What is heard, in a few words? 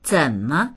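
"zen3me", Mandarin Chinese; zěnme; 怎么; どう、なぜ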